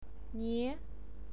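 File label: "nie" second tone, pinyin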